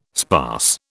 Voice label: "sparse", British